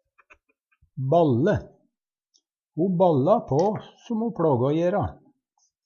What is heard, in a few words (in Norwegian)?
"balle", Numedalsmål